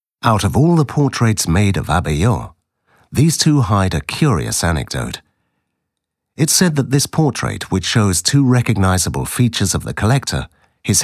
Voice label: audioguia english